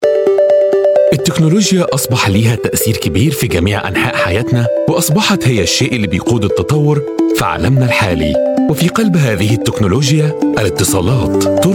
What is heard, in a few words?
Arabic voice over, male narrator